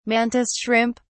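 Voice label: "mantis shrimp", English, British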